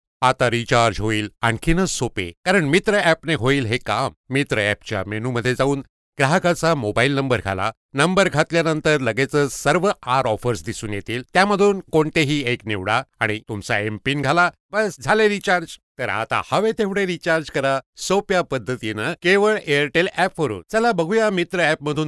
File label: MARATHI MALE